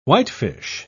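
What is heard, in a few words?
"whitefish", British English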